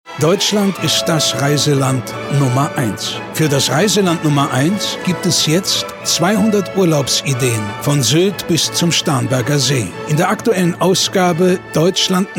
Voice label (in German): Radiowerbung; ADAC